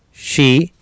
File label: "Sii", Sanskrit